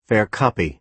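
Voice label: "fair copy", American English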